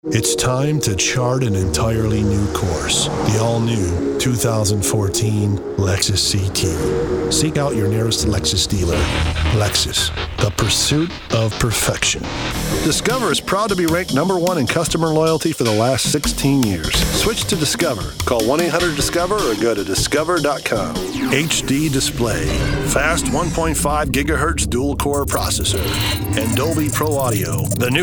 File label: commercial demo